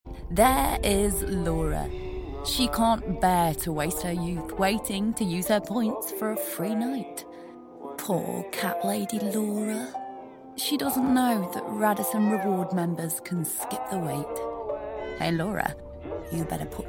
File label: Commercials